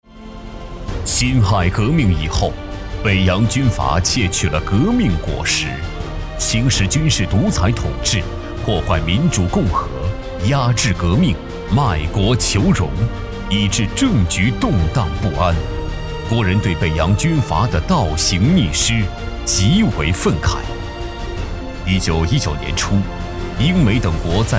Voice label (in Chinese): 男 历史厚重 历史专题